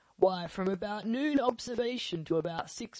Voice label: Phonation SL Resynthesis; VowelBender; Source Formant examples